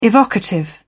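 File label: "evocative", British English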